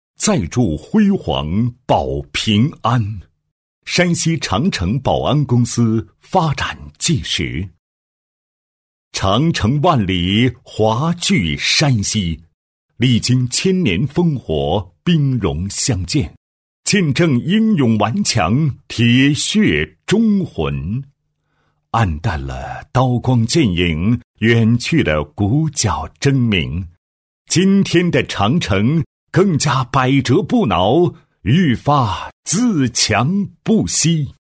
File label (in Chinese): 男 企业专题 保安公司 大气宣传; 山西长城保安公司; 大气稳重